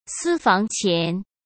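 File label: "私房钱", Mandarin Chinese; chinese-flashcards; hsk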